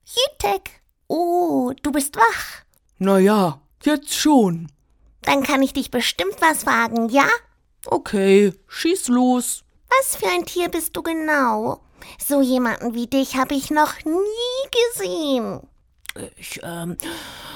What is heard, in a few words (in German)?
Hoerspiel beide Stimmen; Rubi und Bandi